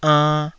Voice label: "ann2", taiwanese